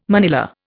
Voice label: "manilla", Indian

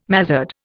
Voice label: "mazard", Indian

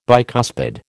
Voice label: "bicuspid", American

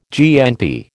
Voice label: "GNP", American

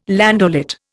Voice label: "landaulet", Indian

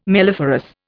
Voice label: "melliferous", Indian

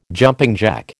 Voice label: "jumping jack", American

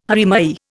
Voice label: "haere mai", Indian

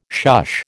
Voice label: "shush", American

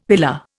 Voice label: "pillar", Indian; Dictionary

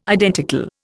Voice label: "identical", Indian

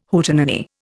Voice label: "Hootenanny", Indian

